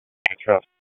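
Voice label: Raleigh Police Northeast District Dispatch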